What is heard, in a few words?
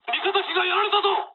aircraft destroyed mood low, speech jp